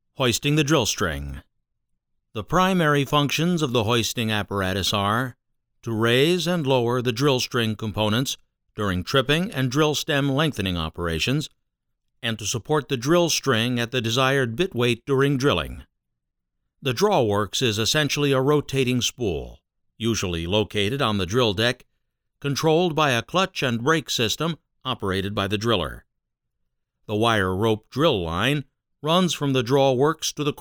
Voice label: E-learning Oil and Gas